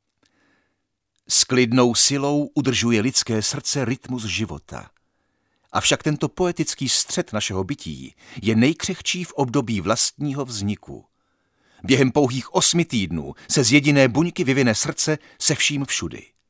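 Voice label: male Czech documentary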